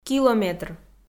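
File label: "километр", Komi, female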